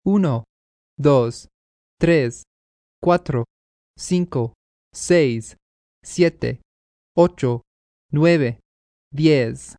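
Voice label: Numbers Spanish, male, foreign, Spanish, counting from 1 to 10, (human voice speaking); Talking Sound Effects; VoiceBox; Twistedtracks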